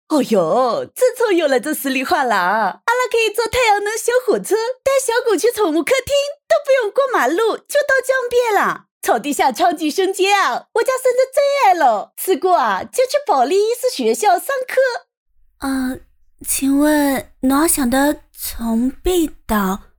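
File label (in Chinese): 上普 女 上海普通话样音女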